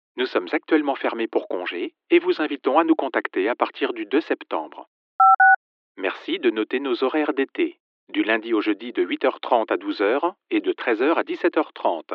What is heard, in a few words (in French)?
voix off servtel; bache creation